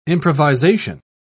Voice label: "improvisation", pronounce